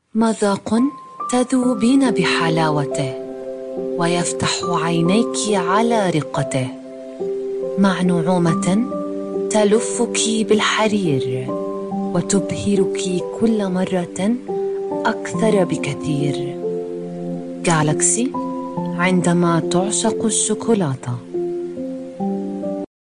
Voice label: 阿拉伯女声; 阿拉伯语

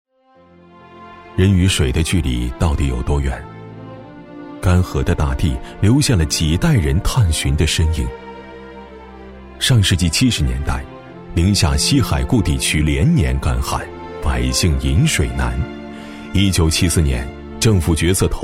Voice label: 男 纪录片 企业发展 大气讲述, 年轻浑厚 质感磁性

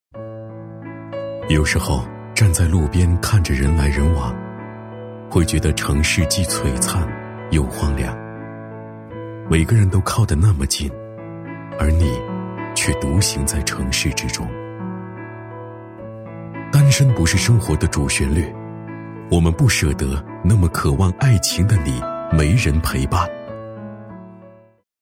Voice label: 男 电台片头 磁性温暖; 大气主流; 质感磁性

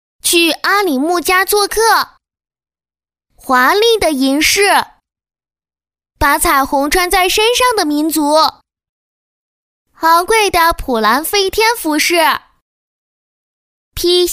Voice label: 女 课件角色【课名配音女童】; 可爱

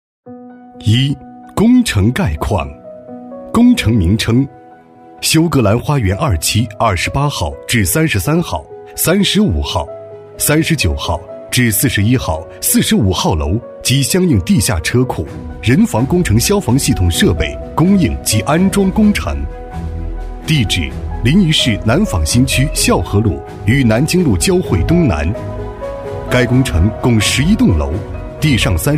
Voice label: 男 专题汇报片 工程介绍; 苏格兰花园

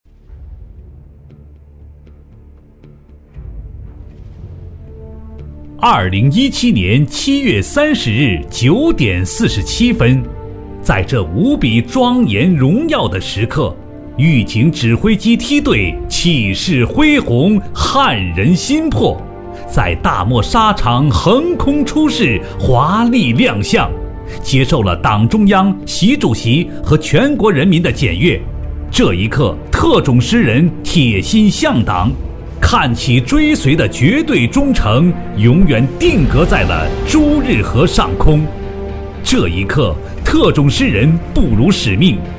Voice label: 男 部队专题 军事阅兵; 男60-角色方言 大气浑厚

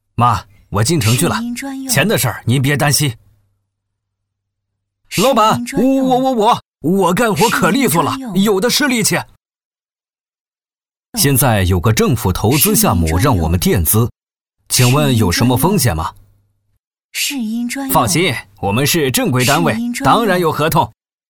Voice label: 男 角色 农名工, 浑厚有力 素人自然

